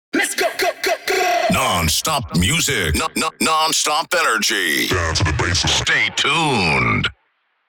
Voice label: Vocals radio voice sweeper non stop music